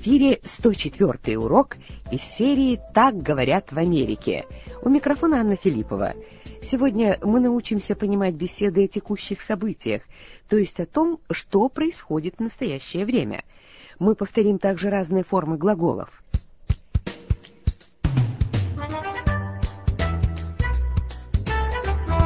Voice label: russian english